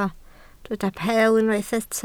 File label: "peel", Welsh